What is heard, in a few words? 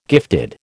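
"gifted", American English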